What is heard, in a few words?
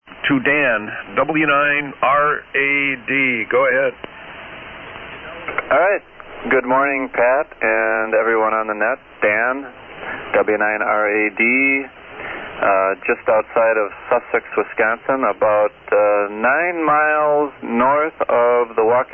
Yaesu FT-101E Shure 444 Mic; Sussex, Wi.